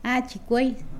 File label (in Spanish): Nawat palabras ocho; pipil